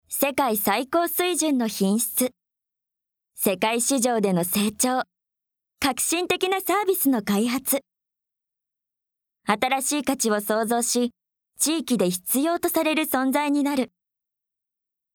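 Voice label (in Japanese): ナレ, ボイスサンプル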